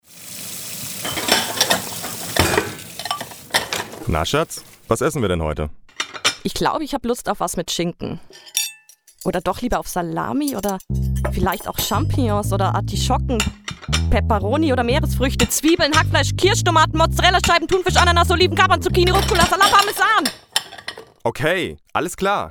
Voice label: Werbung Pizza Bar; Toskana

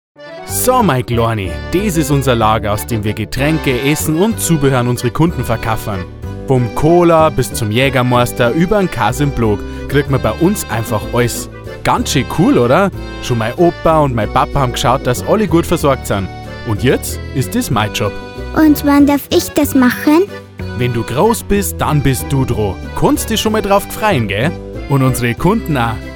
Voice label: Werbung; Pfister

